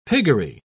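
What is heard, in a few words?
"piggery", British English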